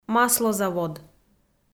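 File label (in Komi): "маслозавод", Komi-Zyrian, female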